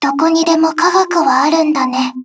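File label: victory, Japanese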